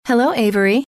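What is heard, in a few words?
"hello", female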